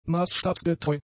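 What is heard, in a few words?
"maßstabsgetreu", German; adjectives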